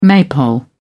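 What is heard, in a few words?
"maypole", British English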